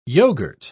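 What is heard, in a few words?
"yoghurt", British English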